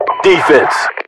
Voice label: defense, voiceovers, US; english